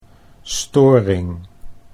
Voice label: "storing", Dutch